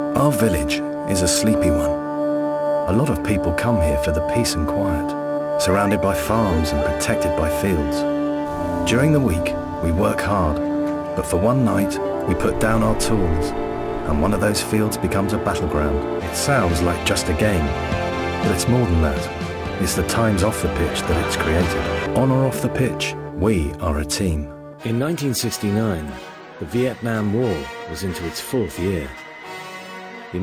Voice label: male english multiple accents film narration compilation